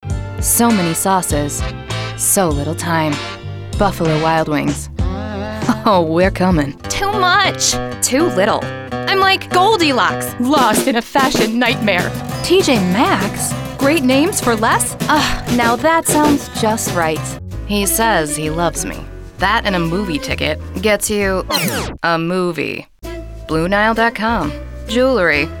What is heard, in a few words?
comm VO